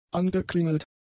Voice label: "angeklingelt", German; adjectives

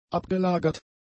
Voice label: "abgelagert", German; adjectives

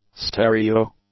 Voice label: "stereo", English; adjectives

